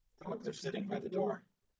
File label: calm fearful generated; GAN for speech spectrogram